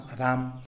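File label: "rdam", Tlacochahuaya Zapotec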